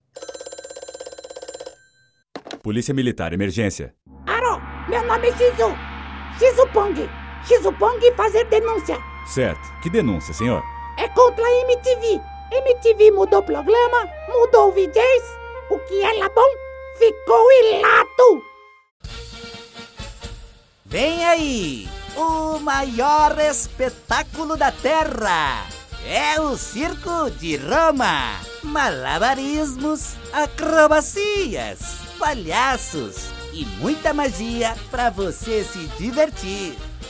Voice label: Loc Voz Caricata

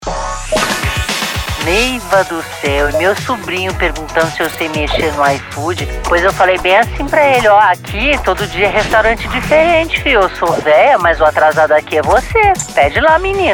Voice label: Personagem popular conversada

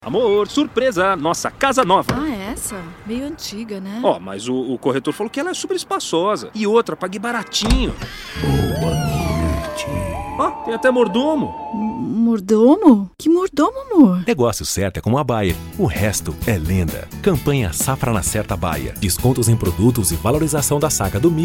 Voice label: Spot; Bayer

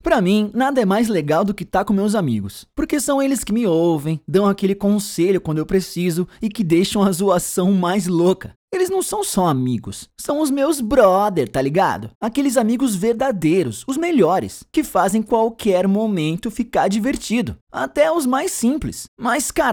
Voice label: Locução; Ruffles

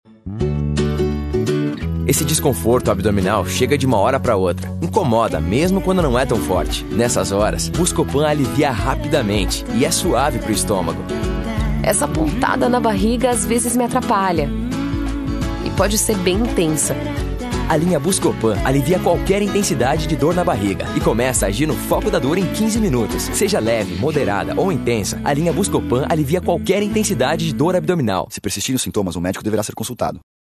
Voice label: Comercial; Buscopan

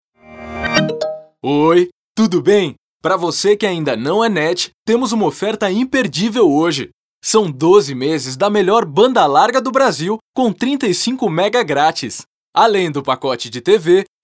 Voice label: Espera Telefônica; NET

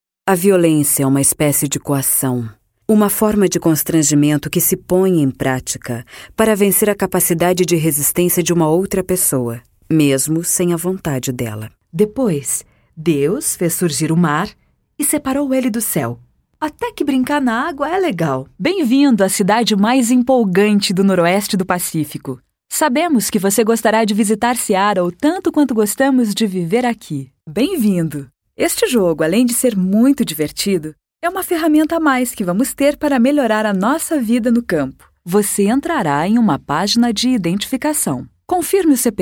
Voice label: Portfolio Narracoes

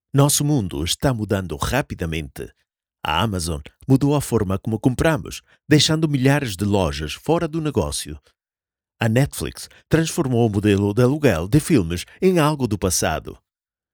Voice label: Spot EU PT; Nosso Mundo

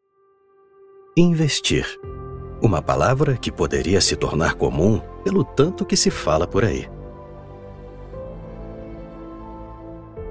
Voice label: Institucional; Adbank

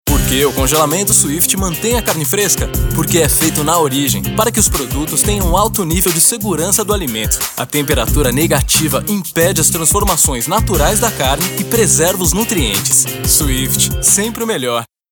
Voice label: Clássica; Congelamento Swift

